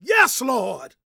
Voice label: PREACHIN, Gospel, PREACHER; ILIO; Vocal Planet

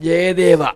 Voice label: MAN VX, Indian Traditions

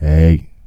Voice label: HEY, Male Vox; Hip Hop Nation

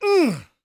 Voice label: SHOUTS, Gospel, PREACHER; ILIO; Vocal Planet

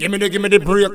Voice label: GUY, Dub Vox; Hip Hop Nation

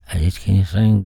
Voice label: talk, spoken, blues soul; Spectrasonics Vocal Planet

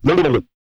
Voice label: GOOFY, MALE HUMAN; R&B Dance; Spectrasonics Vocal Planet

